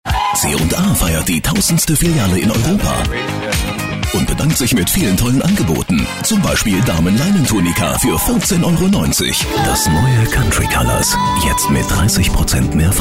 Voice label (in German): Werbung